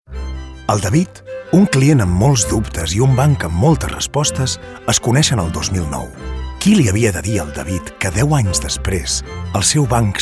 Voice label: catalan bank comercial; ideasonora